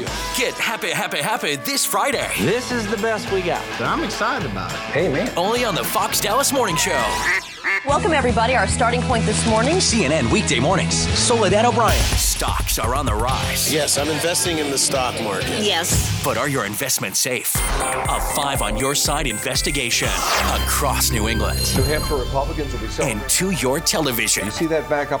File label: tv affiliate news and entertainment